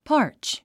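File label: "parch", English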